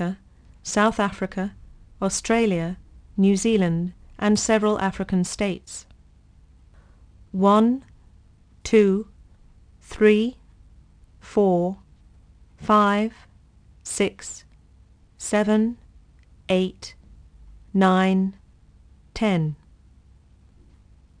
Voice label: english